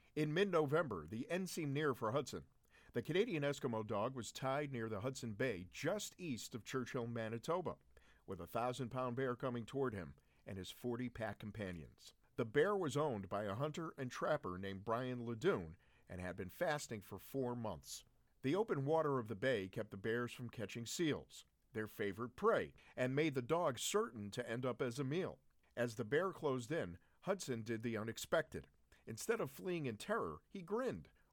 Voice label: Audiobook